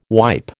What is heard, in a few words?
"wipe", American